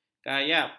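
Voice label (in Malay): "kayap", Malay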